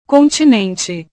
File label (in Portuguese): "continente", portugues